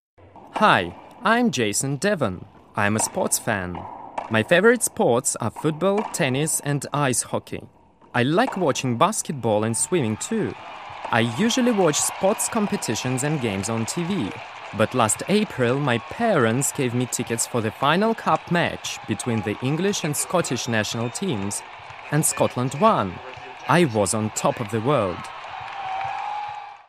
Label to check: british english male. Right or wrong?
Right